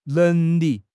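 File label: "neng2 li4", Mandarin Chinese; HSK4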